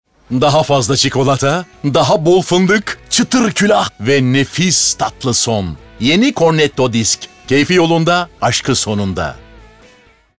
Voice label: Erkekli Neseli

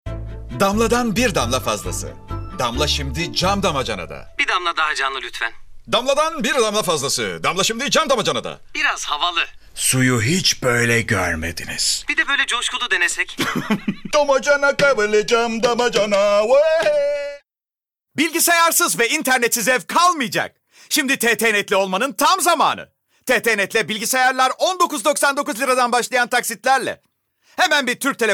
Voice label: REKLAM

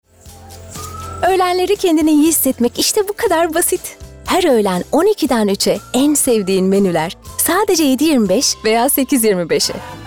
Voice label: Romantik

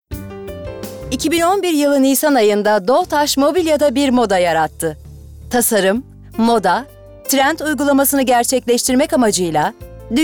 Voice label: Tanitim

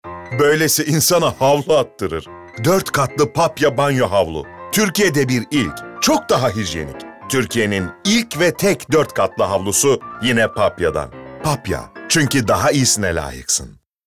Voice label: Komik

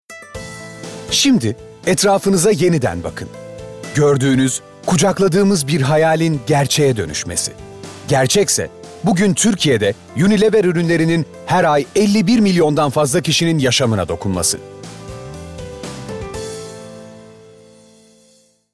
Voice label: Tanitim

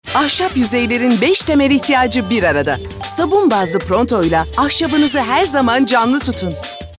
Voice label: Neşeli

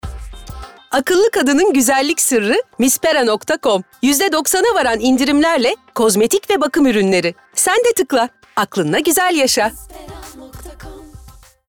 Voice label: Neseli Dinamik

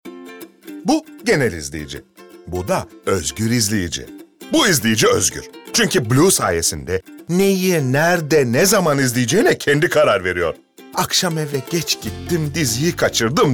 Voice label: Neseli

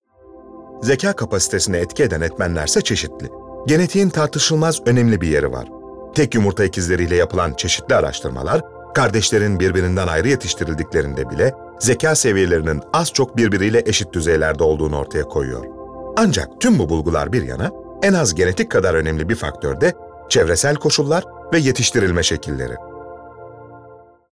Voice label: Belgesel

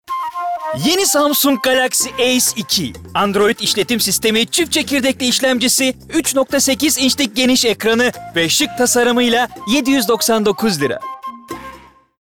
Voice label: Neseli Dinamik

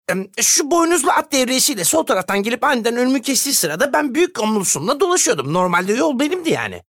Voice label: ANIMASYON, tr

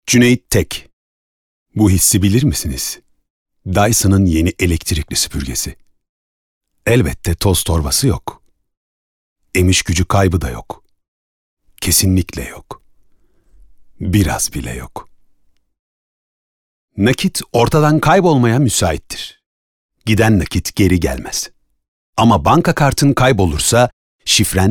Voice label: Reklam

